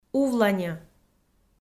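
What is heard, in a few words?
"увланьӧ", Komi, female; audio dict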